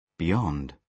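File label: "beyond", British English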